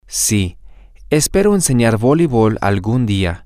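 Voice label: yes I hope to teach volleyball some day, Spanish, male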